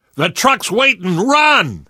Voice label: arrive truck, survivor voice; source engine; left 4 dead 2; namvet